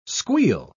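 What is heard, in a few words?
"squeal", spoken word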